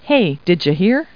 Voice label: people, kid

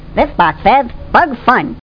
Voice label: Narrator

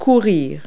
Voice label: "run", verb; Multi-Lingual Talking Picture Dictionary

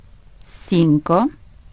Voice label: FIVE, SPANISH

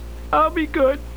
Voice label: grandpa

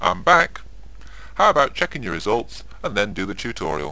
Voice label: chat

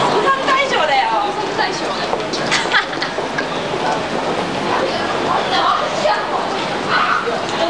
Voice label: GIRL; Interactive Media Design Review 1999; tokyo

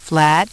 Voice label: Key Flat, Voice, Prompts; Opcode Systems

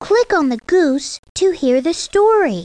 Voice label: goose read; The Pea; SoftKey

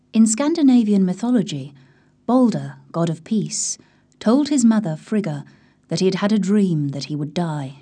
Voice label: GIRL; XMSMAGIC

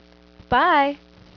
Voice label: "Bye" (Female)

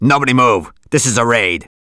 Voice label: Raid, Male; gangsters

